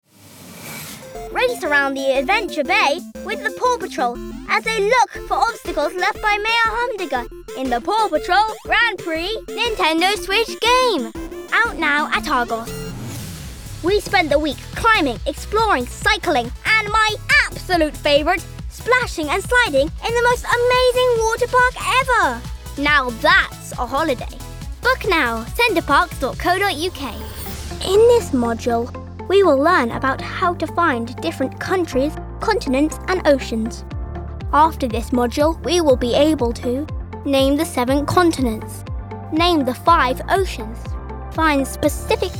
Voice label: Voice Over; voice reel